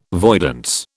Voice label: "voidance", British

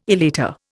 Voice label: "elater", Indian

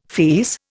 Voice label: "fierce", Indian

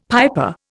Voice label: "piper", Indian; Dictionary

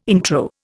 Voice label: "intro", Indian

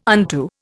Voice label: "unto", Indian

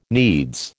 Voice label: "needs", American

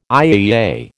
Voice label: "IAEA", American

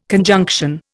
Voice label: "conjunction", Indian

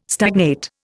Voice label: "stagnate", Indian

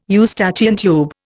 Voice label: "Eustachian tube", Indian

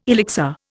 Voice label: "elixir", Indian

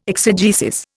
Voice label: "exegesis", Indian

